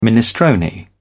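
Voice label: "minestrone", British English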